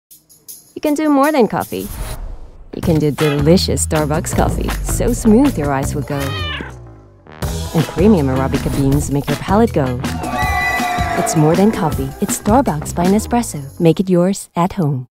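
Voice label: ENGLISH - COMMERCIAL Conversational, Friendly, Mischievous, Cheeky; ENGLISH - COMMERCIAL (Starbucks) Conversational, Friendly, Mischievous, Cheeky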